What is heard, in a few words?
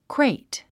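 "crate", English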